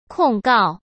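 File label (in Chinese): "控告", Mandarin Chinese; hsk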